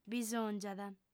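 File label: "bisòngiat", female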